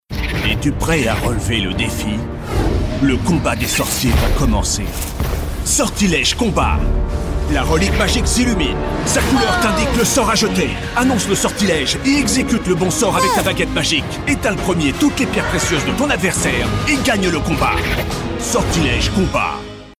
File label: male french game comercial; ideasonora